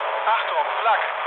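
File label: German damaged aa mood low, speech; War Thunder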